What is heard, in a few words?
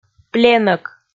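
"пленӧк", Komi, female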